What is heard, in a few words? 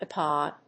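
"iPad", English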